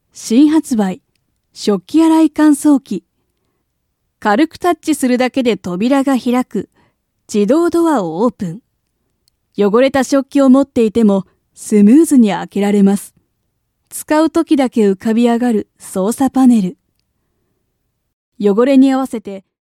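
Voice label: Japanese Web commercial Dishwasher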